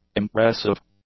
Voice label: "impressive", English; adjectives